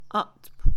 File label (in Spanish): Mixe, Mujer; enredadera; Agricultura; INALI